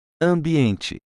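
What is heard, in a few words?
"Ambiente", Portuguese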